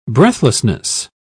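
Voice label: "breathlessness", British English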